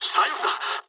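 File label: Japanese left wing mood low; War Thunder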